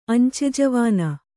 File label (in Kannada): "ಅಂಚೆಜವಾನ", Kannada